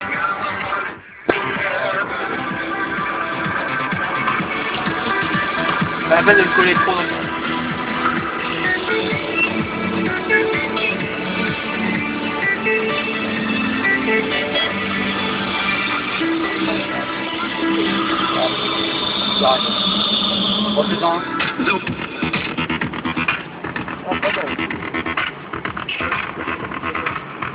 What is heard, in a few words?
voicenote